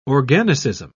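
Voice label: "organicism", British English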